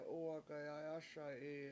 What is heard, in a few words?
"uwagayayASa7i", Washo; you will speak